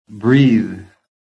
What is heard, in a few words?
"breathe", phonetic